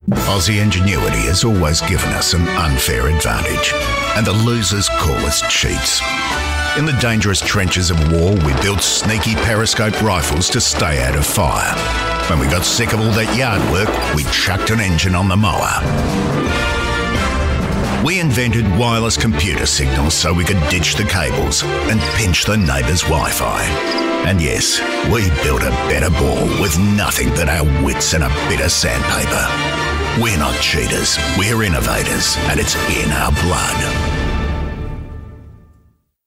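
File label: TVC, voiceover; Gruen Transfer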